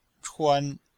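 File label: "chuan1", chinese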